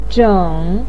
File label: "zhěng", voice pinyin